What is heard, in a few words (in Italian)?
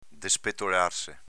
"despetolarse", Veneto